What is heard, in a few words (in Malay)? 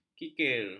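"kikir", Malay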